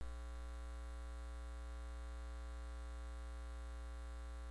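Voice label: "bog", spoken word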